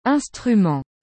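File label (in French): "instrument", French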